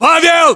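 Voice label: "pavel", battlechatter, Russian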